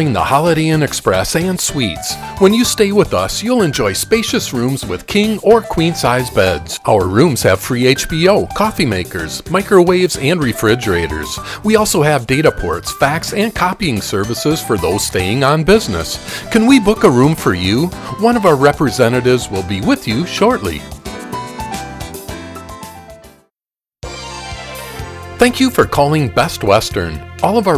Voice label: Hotel On Hold